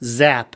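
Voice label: "zap", American English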